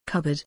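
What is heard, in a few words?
"cupboard", English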